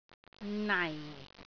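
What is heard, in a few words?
"nai4", Cantonese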